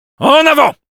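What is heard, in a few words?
Spy go, French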